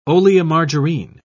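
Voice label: "oleomargarine", British English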